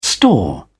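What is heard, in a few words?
"store", English, British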